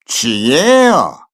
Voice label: happy